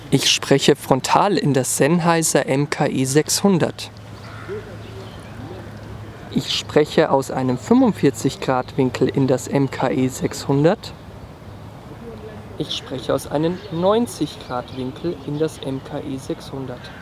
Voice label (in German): Sennheiser MKE 600 Audio Sample Winkel